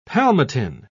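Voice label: "palmitin", British English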